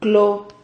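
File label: "clo", Spanish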